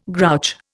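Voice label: "grouch", Indian English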